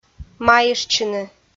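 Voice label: "майыштчыны", Komi, female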